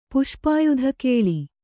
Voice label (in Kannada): "ಪುಷ್ಪಾಯುಧಕೇಳಿ", Kannada